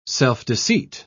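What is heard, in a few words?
"self-deceit", British English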